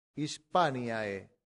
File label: "hispaniae", latin; santillana